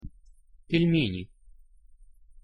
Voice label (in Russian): pronunciation пельмени